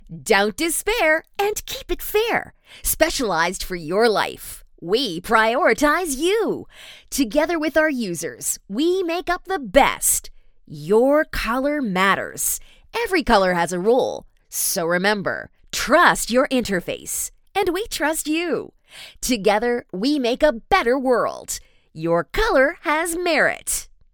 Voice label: 活力激情 广告

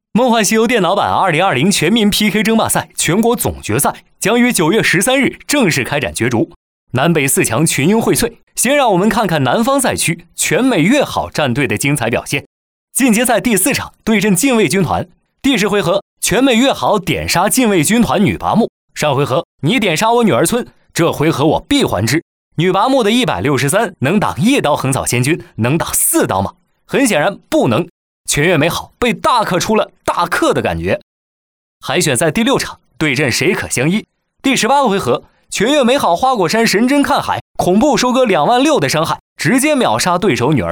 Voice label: 男 游戏解说; 梦幻西游晋级之路文案

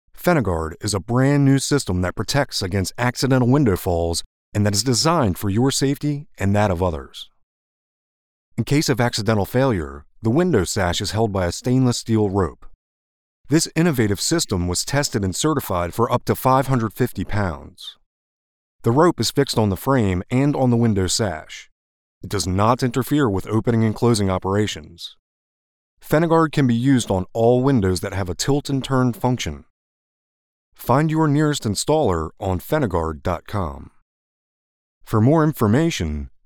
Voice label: 外籍英男 围栏保护系统

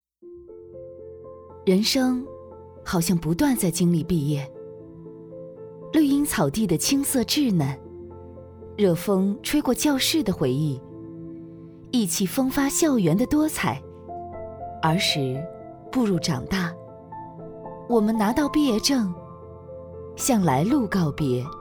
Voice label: 女 短视频 毕业季短视频

